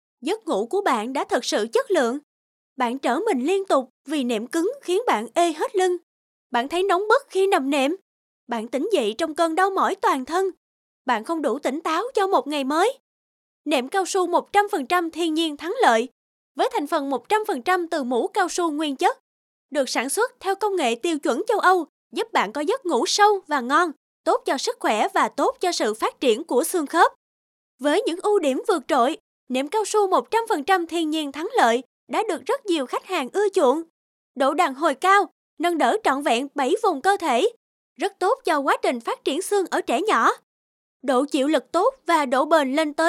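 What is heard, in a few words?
Vietnamese South, female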